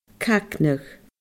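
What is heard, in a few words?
"cagnadh", Scottish Gaelic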